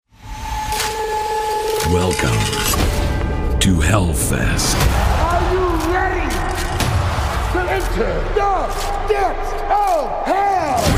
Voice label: english american movie trailers

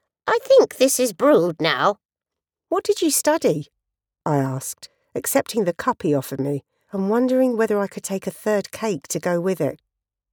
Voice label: english british audiobooks

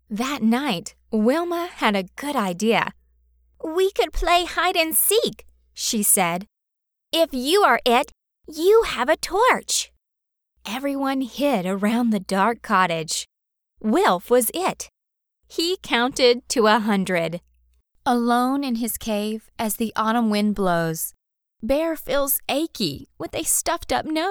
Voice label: english american audiobooks

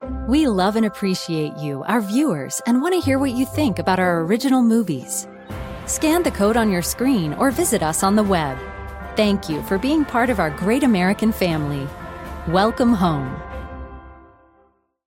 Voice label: english american announcements; Chattanooga; hauptberufliche Synchronsprecherin; Heimstudio

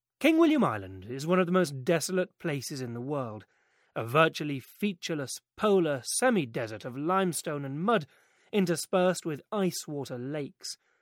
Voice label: english british documentaries

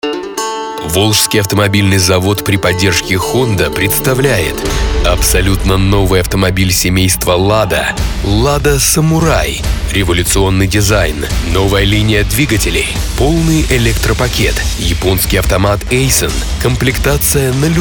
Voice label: Russian automotive

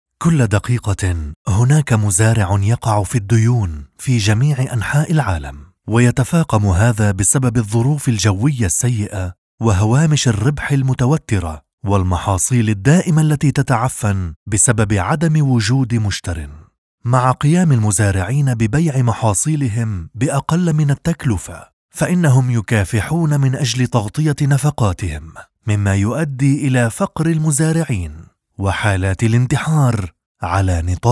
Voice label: arabic narration

